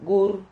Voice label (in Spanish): "gur", Silabas